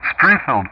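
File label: "Springfield", English; Ka-50; Callsign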